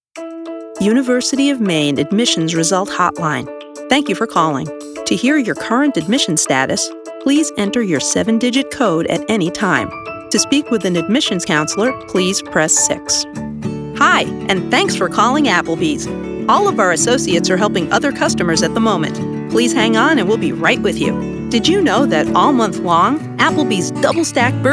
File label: Telephony